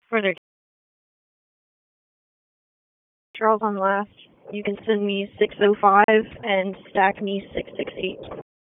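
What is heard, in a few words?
Police District Dispatch, p25; Raleigh; Southeast; wake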